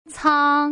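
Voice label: "cang", Mandarin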